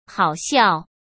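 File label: "好笑", Mandarin Chinese; hsk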